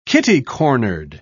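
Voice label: "kitty-cornered", British English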